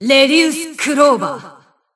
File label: System Voice; BBCP; Bullet